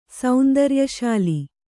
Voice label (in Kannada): "ಸೌಂದರ್ಯಶಾಲಿ", Kannada